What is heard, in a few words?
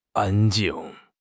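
"an1 jing4", Mandarin Chinese; HSK3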